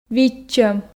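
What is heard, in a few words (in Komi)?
"видчӧм", Komi, female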